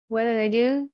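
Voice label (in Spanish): Voice Acting es F, Spanish, female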